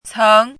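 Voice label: "céng", Mandarin Chinese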